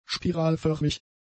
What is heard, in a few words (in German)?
"spiralförmig", german; adjectives